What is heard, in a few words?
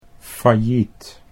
"failliet", Dutch